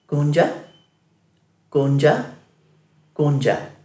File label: "gundja", Dhurga